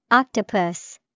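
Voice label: "Octopus", English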